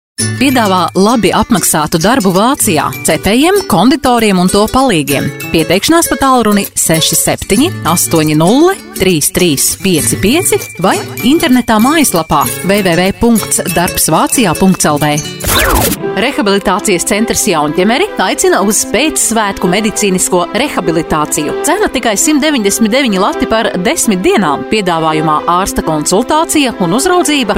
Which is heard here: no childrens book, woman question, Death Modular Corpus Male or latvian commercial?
latvian commercial